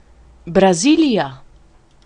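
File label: brazil, Spanish; gcompris-data; geography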